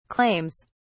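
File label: "claims", British English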